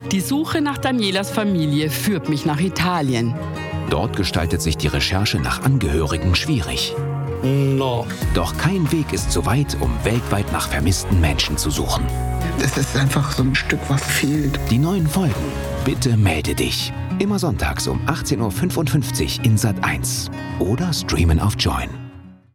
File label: Station Voice; Sat.1; Bitte melde dich